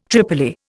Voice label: "tripoli", Indian

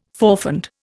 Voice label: "forfend", Indian

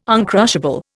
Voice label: "uncrushable", Indian

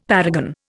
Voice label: "paragon", Indian; Dictionary

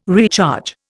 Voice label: "recharge", Indian

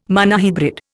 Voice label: "monohybrid", Indian

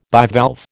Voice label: "bivalve", American

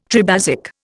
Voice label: "tribasic", Indian

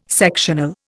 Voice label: "sectional", Indian

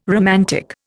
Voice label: "romantic", Indian

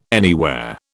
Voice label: "anywhere", British

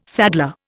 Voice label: "saddler", Indian

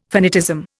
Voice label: "finitism", Indian

